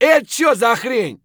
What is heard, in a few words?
scav mutter, voice; Escape from Tarkov; scav2